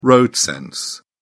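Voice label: "road-sense", British English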